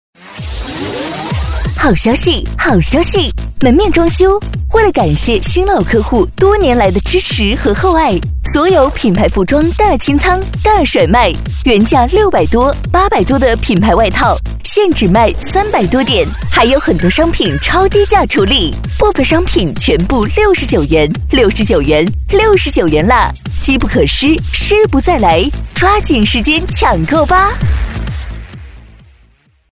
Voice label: 广告 促销 好消息 甜美

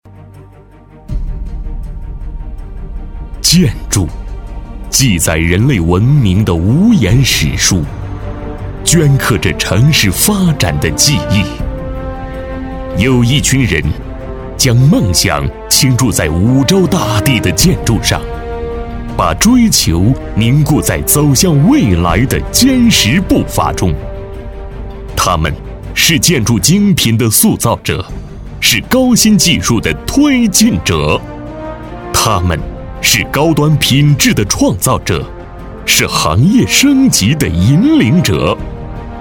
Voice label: 宣传片 企业 大气, 男国; 中建西部建设

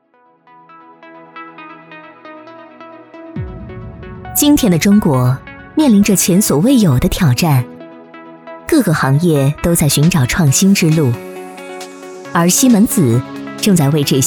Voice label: 轻松; 产品; 西门子

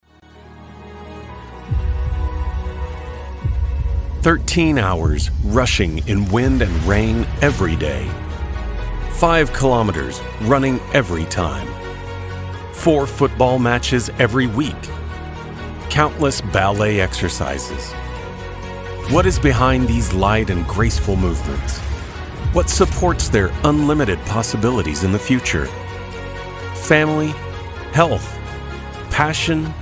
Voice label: 美式英语 走心, 外籍男英; 外语

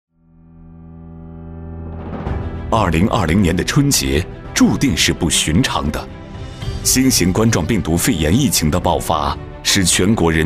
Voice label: 专题 政府 公安局疫情总结 稳重

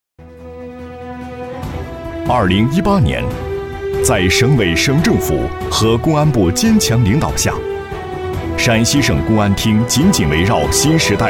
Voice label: 激情; 公安专题

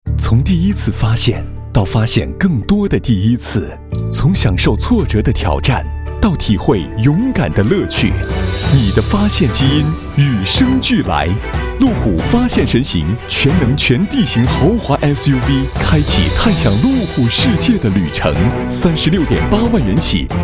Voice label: 广告 汽车 活力, 男国; 路虎发现神行

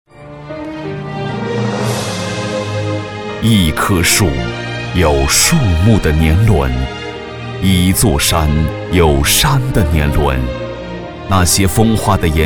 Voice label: 男国 专题 学校 舒缓; 昌乐一中建校80周年

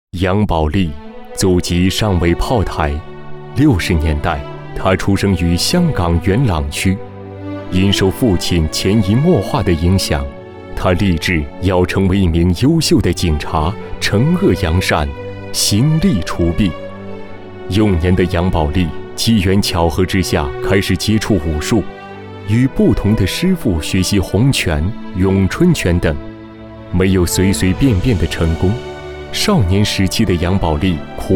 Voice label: 男 专题 人物

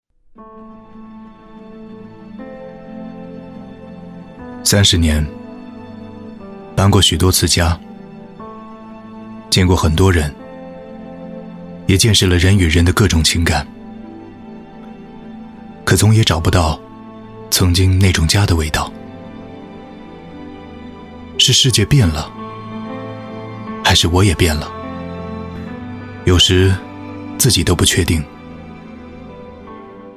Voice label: 微电影 沉稳独白

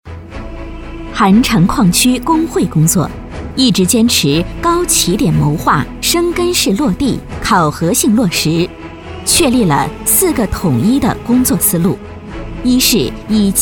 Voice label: 女国 专题 企业 矿业 大气; 韩城矿业

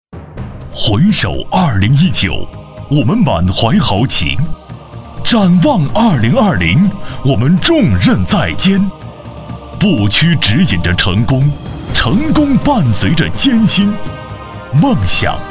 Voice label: 男国 专题 颁奖; 华为

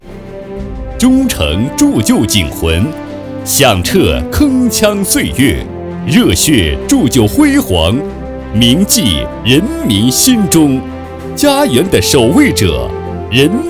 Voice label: 男国 专题 政府 沉稳; 人民警察

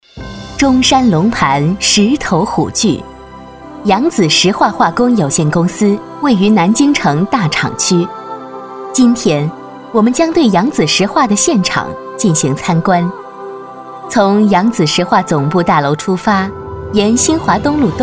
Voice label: 专题 企业 稳重; 扬子石化

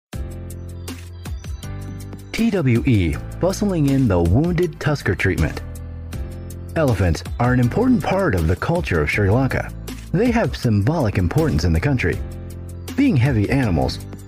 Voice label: 外籍男英 外语 美式英语 科技

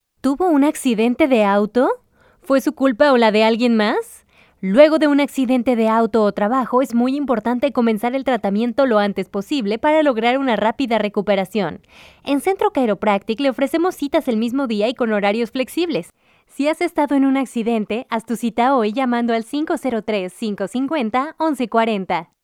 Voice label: 西班牙语女 外语 小语种

